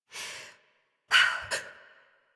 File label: Adult; Dax Battle; Warframe; Duviri Paradox Quest